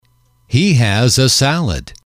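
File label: He has a salad, male, English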